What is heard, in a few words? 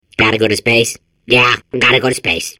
babble; Portal 2